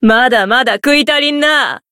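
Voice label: win, Japanese; minalca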